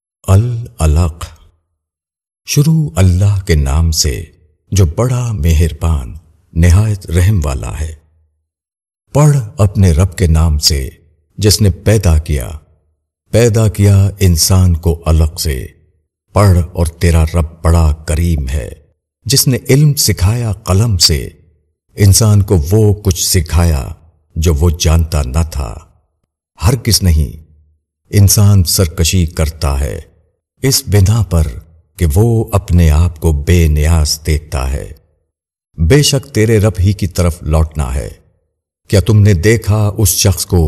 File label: AL ALAQ, URDU TARJUMA E QURAN; Inzaar